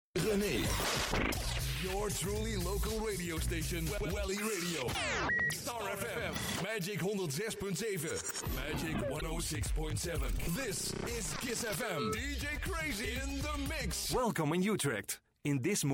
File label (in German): Niederländisch, Männlich